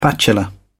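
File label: "bachelor", British English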